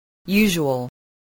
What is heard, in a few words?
"usual", English